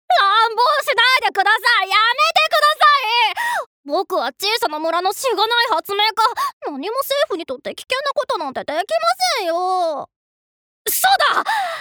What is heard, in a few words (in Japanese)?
アニメ